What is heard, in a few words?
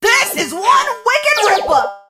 drill lead vo